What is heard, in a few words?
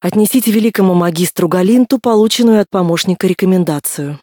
tutorial voice, ru; lineage2